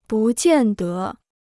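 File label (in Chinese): "不见得", Chinese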